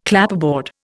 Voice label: "Clapperboard", Indian